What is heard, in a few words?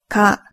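"ka", Japanese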